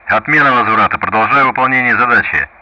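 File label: Speech Russian; DCS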